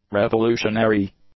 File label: "revolutionary", English; adjectives